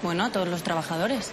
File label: Voice Acting es F